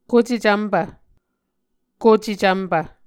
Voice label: "kocecvmpv", muscogee

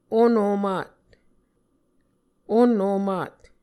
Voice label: "on omat", Muscogee

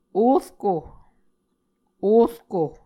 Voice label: "orko", Muscogee

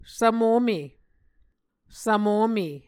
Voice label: "svmome", Muscogee

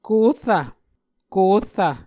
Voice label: "korrv", Muscogee

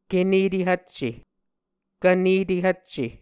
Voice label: "Kvnete Hvcce", muscogee; Mvskoke Words with Repeated Consonants; Onvkv Ohhvyayices; A Dictionary of Creek/Muskogee; SIL International

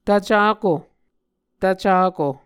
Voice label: "tvcako", muscogee

